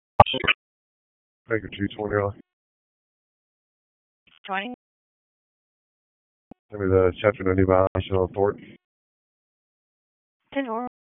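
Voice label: Police Northeast District Disp, radio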